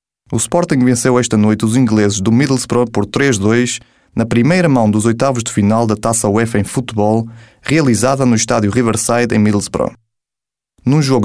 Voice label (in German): Portugal M